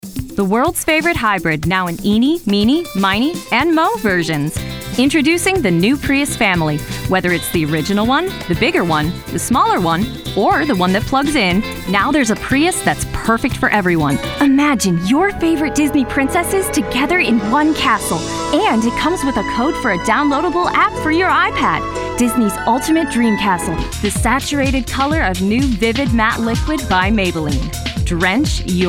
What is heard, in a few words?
commercial; Producer: Richard Horvitz